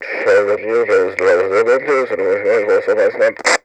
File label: Walkie Talkie Chatter; Communications